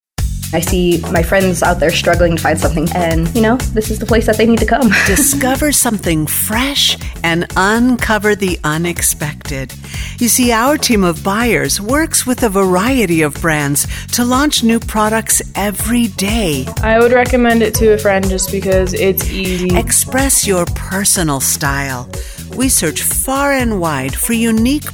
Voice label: Closing Sincere Happy Mature mid tone, voiceover commercial branding